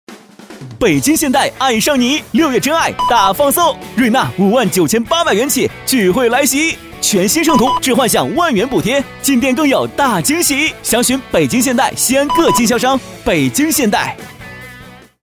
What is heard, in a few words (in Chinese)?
男, 广告; 北京现代